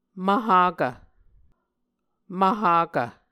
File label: "mvhakv", Muscogee